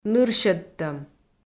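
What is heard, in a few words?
"нырсьӧдтӧм", Komi, female; audio dict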